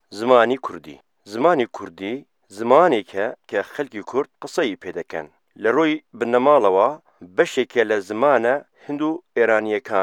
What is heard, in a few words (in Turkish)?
Kurtce Erkek Soranice; kurtce seslendirme; erkek sesler